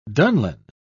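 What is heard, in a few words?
"dunlin", British English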